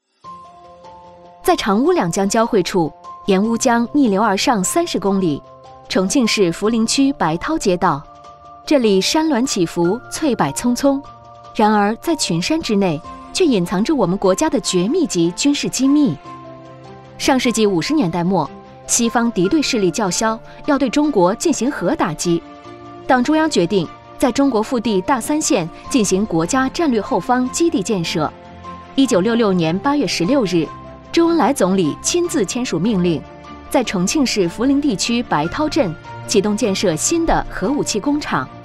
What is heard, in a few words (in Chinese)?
宣传片青春活力; 816基地